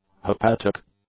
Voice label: "hepatic", English; adjectives

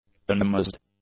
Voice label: "unamazed", English; adjectives

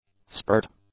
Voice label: "spurt", english; nouns

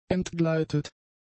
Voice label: "entgleitet", German; adjectives

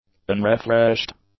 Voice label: "unrefreshed", english; adjectives

